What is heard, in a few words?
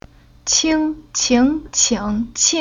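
"Qing", Chinese syllable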